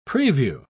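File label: "prevue", British English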